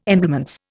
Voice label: "emblements", Indian